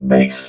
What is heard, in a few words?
"base", robot vox